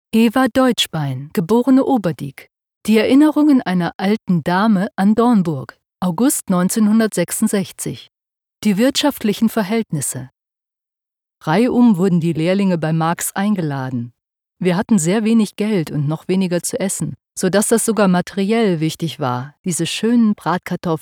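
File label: German, female, Museum Exhibition; Bauhaus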